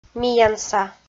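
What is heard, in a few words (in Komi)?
"миянса", Komi, female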